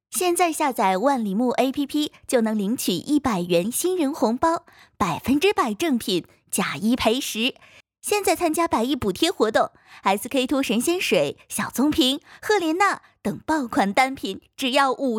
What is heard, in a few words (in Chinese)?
推广广告